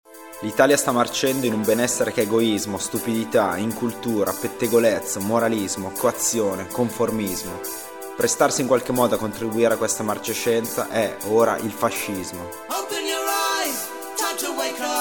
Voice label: spot radio; fest antifa

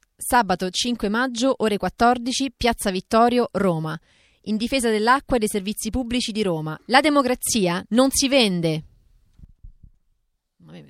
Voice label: spot acqua voce

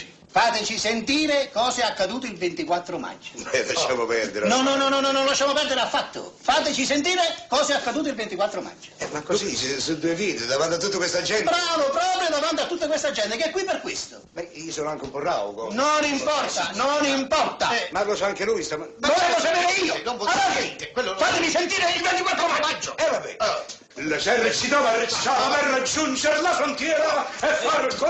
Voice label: spot compleanno

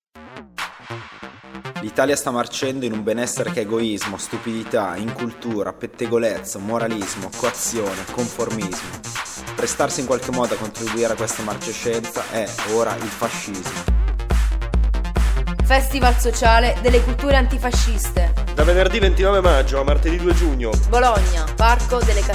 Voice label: spot radio elettro; fest antifa

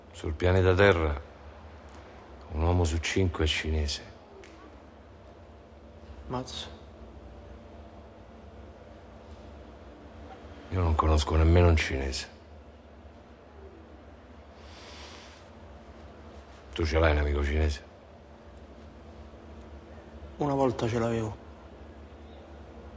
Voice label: giapponese

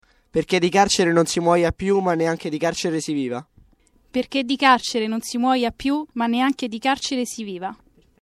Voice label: spot scarceranda voce

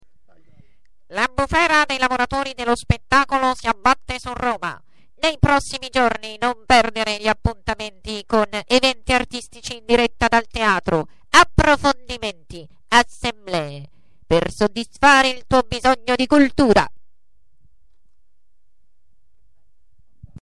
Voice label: spot; bufera

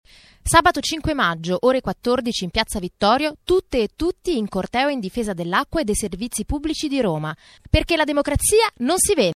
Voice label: spot acqua voce

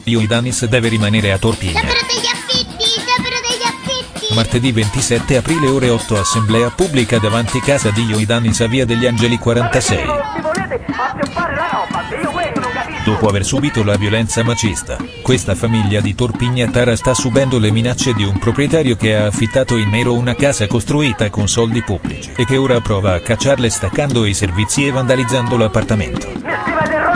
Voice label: jingle antisfratto corto

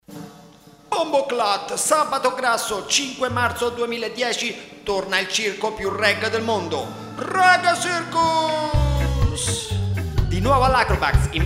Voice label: spot jamaican carnival; cinodromo; SABATO GRASSO

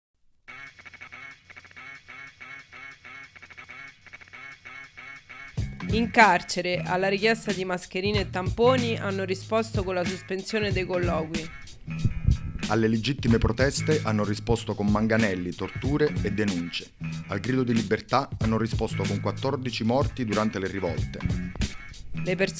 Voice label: spot; carcere; 8 marzo

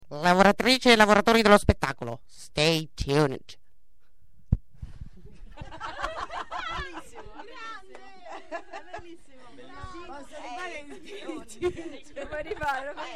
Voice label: spot; lavspett

